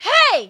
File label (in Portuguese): Chamamento intenso